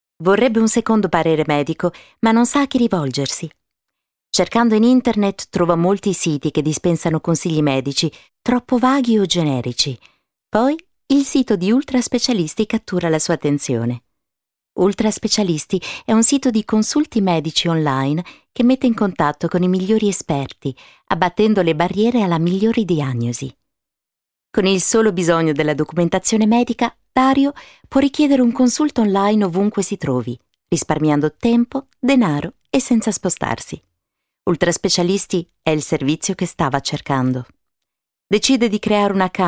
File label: Italian F Corporate